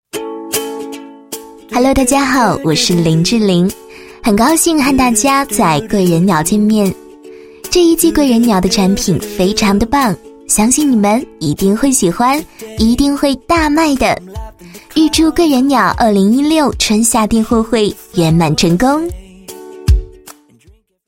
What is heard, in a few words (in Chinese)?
女19 模仿 明星模仿, 国语女声配音; 林志玲贵人鸟